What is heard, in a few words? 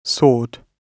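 "soared", British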